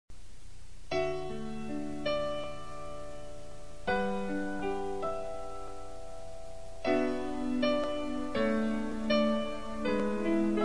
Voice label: MENSAGEM DE CARINHO MASC; Telemensagens; Telemensagens 2017

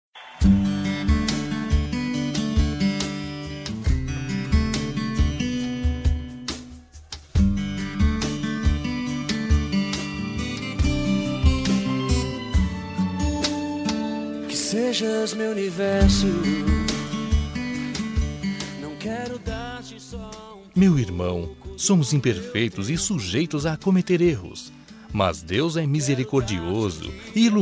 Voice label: Otimismo Amigo Evangelico Masculino; Temas Evangelicos

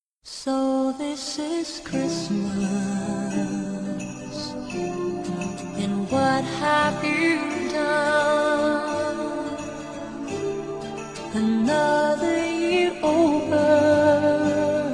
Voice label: Natal Romântico Feminino; Natal e Ano novo; Datas importantes; Natal e Ano Novo Variado

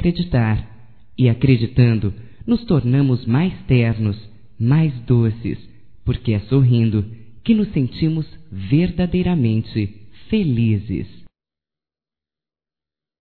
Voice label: SORRIA, fem; Amizades; Telemensagens 2017

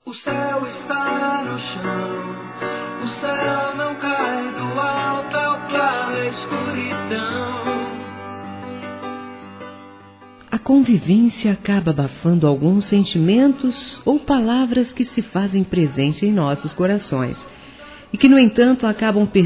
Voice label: Variados Româtica para Casais Feminino, Telemensagens; Românticas

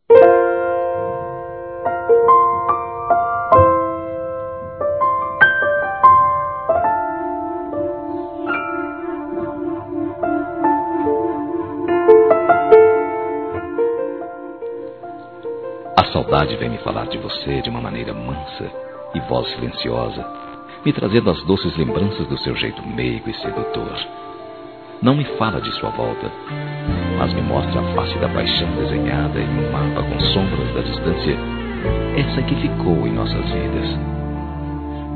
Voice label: Saudades De Ex Masculino, Telemensagens

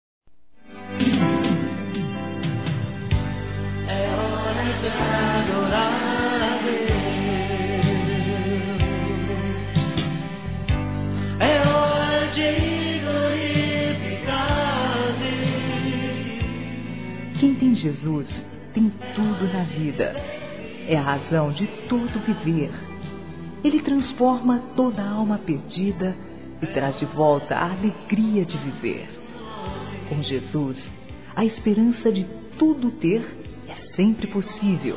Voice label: EVANGELICA-F; Telemensagens; Natal e Ano novo; fem

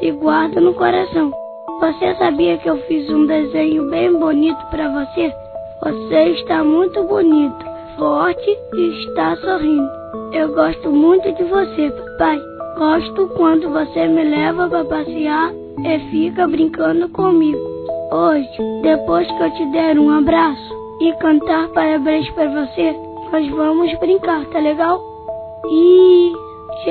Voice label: Aniversário Pai Infantil Masculino; Telemensagens 2017; Aniversários

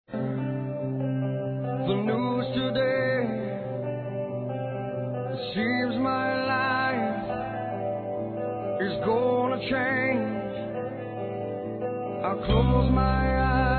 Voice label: ANIV. NEUTRO F; Aniversários; Aniversários diversos; fem

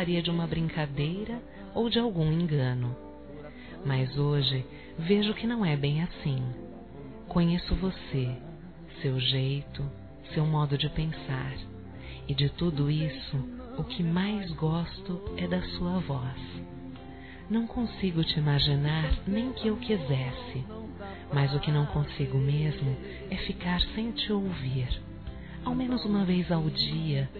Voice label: Namoro Telefone Feminino; Telemensagens; Telemensagens 2017

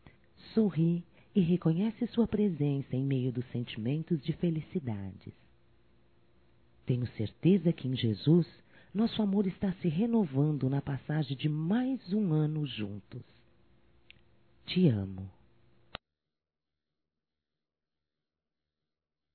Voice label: ANO NOVO NEUTRO (F), Evangelico; Telemensagens 2017

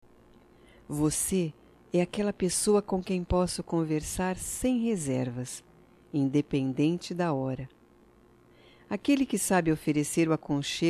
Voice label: DIA DO AMIGO F; Datas Mistas; Telemensagens 2017

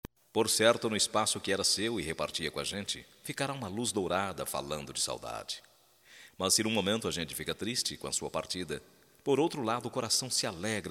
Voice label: DESPEDIDA M, Só Voz, masc; Telemensagens; Telemensagens 2017

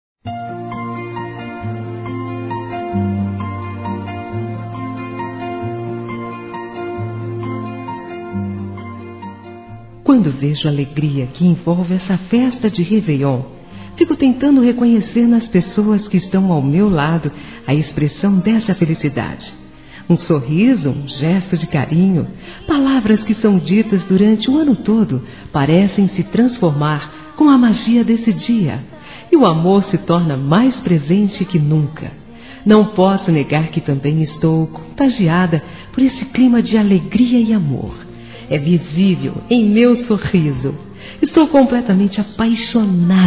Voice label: ANO NOVO ROMANTIC. F; Telemensagens; Natal e Ano novo; fem

